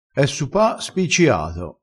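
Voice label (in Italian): "spicciato", vocabolario dialettale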